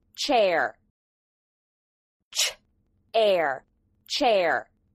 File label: "chair", English